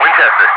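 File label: "winchester", English speech, Wingman; DCS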